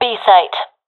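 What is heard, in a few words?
loc b, professional female; vo; Counter Strike Model Pack; CS:GO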